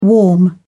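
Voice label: "warm", English, British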